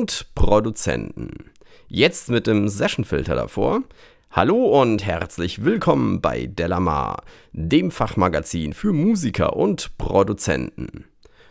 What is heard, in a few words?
pop-filter; session music